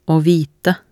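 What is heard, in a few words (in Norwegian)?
"å vite", Norwegian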